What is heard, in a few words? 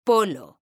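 "polo", Spanish, female; mekanta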